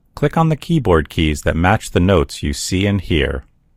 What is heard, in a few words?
"play piano", en US; gcompris